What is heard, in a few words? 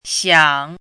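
"xiang3" Mandarin Chinese, dipping tone; chinese-voice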